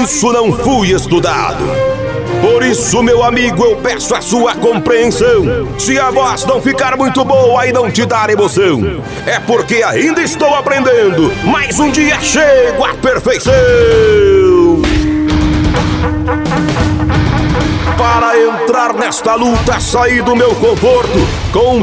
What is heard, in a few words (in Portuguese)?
masculinas locucao de rodeio